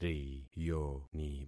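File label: "riyoni", concatenated syllables; Affixing experiment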